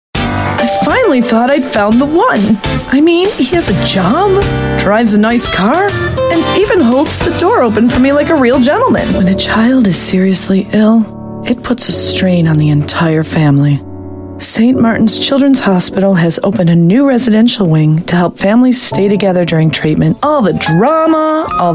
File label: US English Commercials, Female